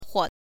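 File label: "huo", chinese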